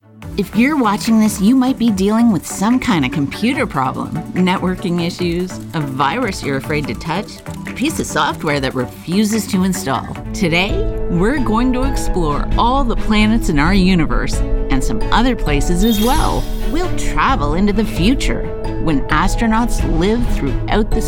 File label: Narration